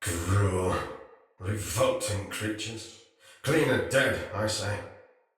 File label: Patrol Spoken, Soldier Male; Sinecure Soldier Male A